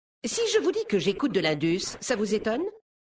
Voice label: Homme Blague; Mecagnome; Indus

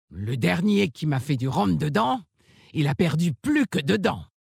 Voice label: VF Femme Flirt; Orc Maghar; Dents

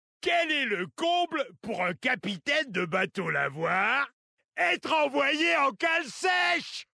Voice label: Homme Blague; Capitaine; Kultirassien

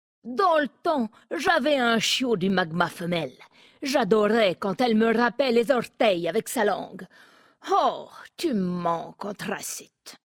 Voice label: Femme Blague; Chien du magma; Nain sombrefer

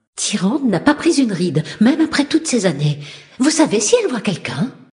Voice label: Female; Sacrenuit; Blague; Tyrande

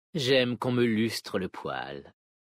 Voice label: Homme Flirt; Vulpérins; Poil

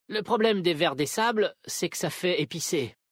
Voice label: Vulperin Homme Blague, VF; VerSable

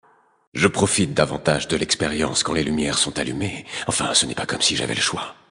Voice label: Male Flirt; Draenei Sancteforge

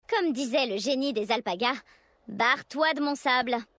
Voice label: Female Blague; Vulpérins; Alpaga

